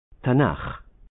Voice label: "Tanakh", Hebrew